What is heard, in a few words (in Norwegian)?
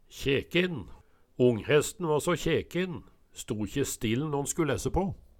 kjekin, Numedalsmål